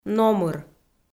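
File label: "номыр", Komi-Zyrian, female; audio dict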